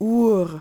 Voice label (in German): "uhr", German